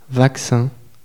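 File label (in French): "vaccin" French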